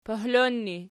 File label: "pohlon", Archi